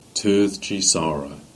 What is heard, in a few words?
"Sarahs Foundary" Cornish